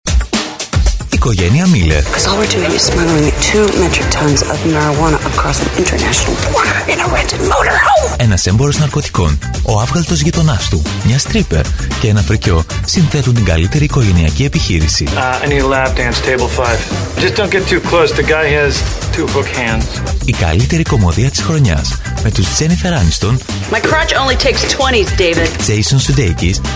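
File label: Greek male Commercial; voice over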